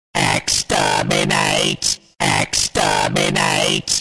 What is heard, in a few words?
Daleks Exterminate